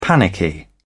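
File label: "panicky", British English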